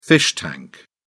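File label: "fish tank", British English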